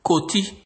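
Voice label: "koti", Nigerian Pidgin, male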